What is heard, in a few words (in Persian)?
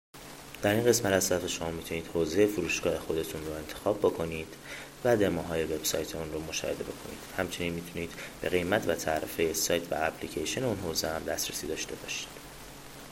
پادکست